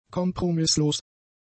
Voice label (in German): "kompromisslos", German; adjectives